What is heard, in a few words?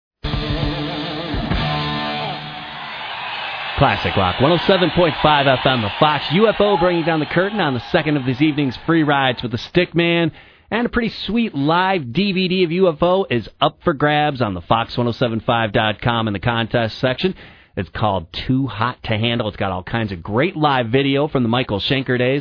air check; wfxj